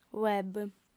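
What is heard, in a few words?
"web", lingua sarda, female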